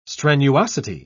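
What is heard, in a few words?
"strenuosity", English, British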